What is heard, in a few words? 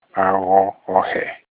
"shoe", Olukumi